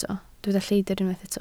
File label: "lleidr", Welsh